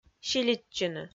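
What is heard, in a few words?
"силитчыны", Komi, female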